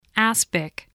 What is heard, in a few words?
"aspic", English